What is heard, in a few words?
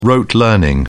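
"rote", British English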